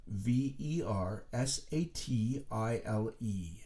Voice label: "Versatile", letters being spelled out